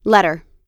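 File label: "letter", US English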